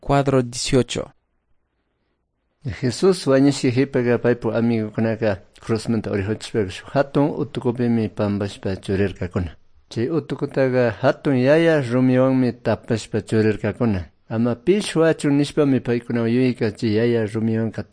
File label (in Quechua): good news quichua cayambe